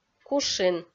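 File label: "кушин", Komi, female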